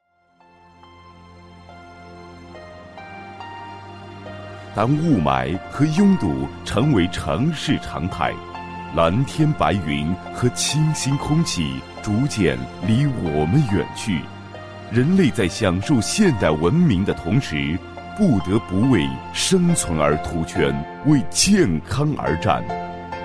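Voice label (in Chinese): 男厚重大气-公益宣传片-公交宣传, 国语男声配音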